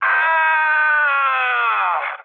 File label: damaged pilot, speech cze, mood low; War Thunder